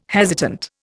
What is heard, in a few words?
"hesitant", Indian